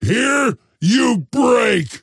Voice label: attack pain; axe

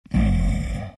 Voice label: treant happy

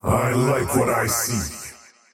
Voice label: last hit pain, faceless void; dota

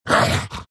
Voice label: troll pain; dota; troll warlord

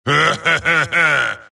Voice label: wraith death; dota; skeleton king

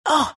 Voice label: temp pain; templar assassin

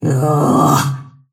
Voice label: anger; snapfire

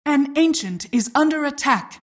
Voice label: announcer ancient attack

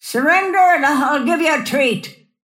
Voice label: attack pain; snapfire

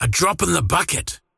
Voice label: last hit pain; dota; largo

